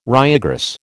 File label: "ryegrass", American